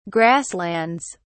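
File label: "grasslands", British English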